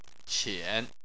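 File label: "qian" third tone, Chinese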